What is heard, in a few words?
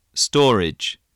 "storage", voice